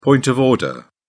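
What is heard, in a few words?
"point of order", British English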